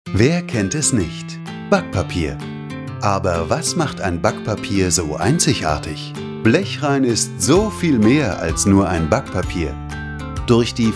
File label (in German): Internet Spot; Blechrein